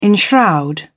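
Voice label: "enshroud", British English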